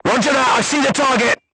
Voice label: voice message target lock, crew tanks portuguese